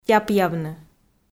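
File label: "тяпъявны", Komi, female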